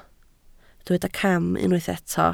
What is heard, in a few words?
"cam", Welsh